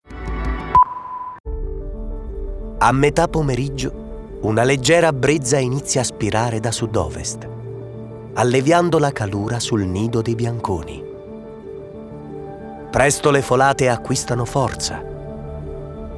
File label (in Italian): documentario